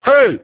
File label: "hey", male; human